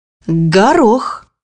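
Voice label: "peas", Russian; bukvar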